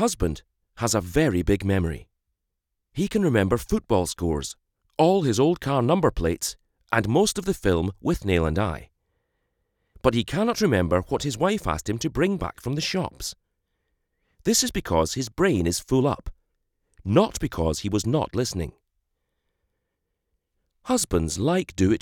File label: Presonus Audiobox